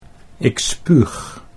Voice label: "ik spuug", Dutch

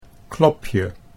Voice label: "klopje", Dutch

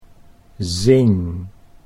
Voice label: "zing", Dutch

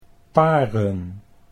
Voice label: "paren", Dutch

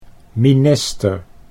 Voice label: "minister", Dutch

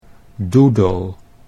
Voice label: "doedel", Dutch

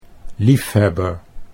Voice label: "liefhebber", Dutch

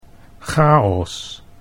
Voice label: "chaos", Dutch

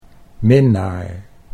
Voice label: "minnaar", Dutch

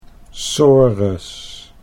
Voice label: "sores", Dutch

